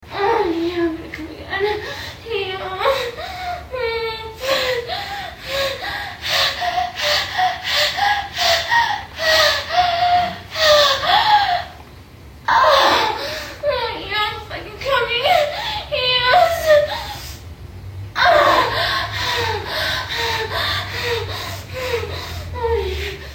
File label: Woman tone